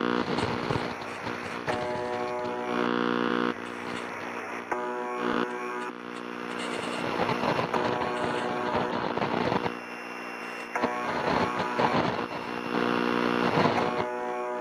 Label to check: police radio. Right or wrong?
Right